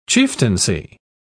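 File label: "chieftaincy", British English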